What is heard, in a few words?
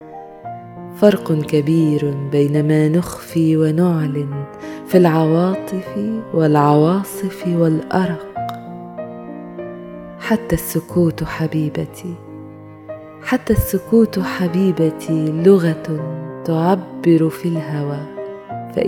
arabic others